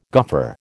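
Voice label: "goffer", American English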